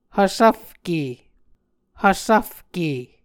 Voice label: "hvsvfke", Muscogee

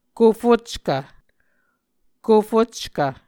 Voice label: "kofockv", Muscogee

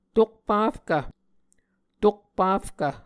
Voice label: "tokpafkv", muscogee

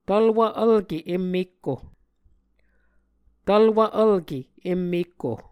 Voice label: "tvlwv vlke em mekko", Muscogee

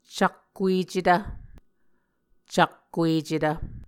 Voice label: "cakkuecetv", muscogee; Mvskoke Words with Repeated Consonants; Onvkv Ohhvyayices; A Dictionary of Creek/Muskogee; SIL International

